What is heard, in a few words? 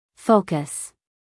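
"focus", American English female; everyone-can-use-english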